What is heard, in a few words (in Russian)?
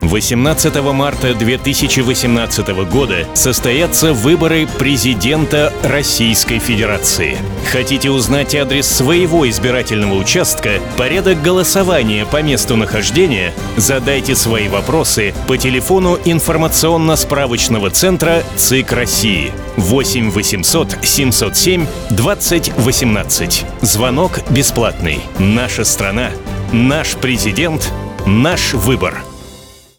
telefon